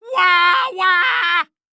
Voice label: Cartoon Loud Voice "Wah Wah"; AdobeCC